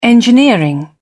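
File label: "engineering", British English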